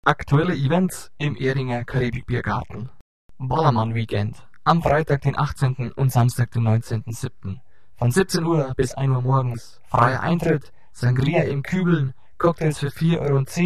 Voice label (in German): WERBUNG; Sunrise Ballermann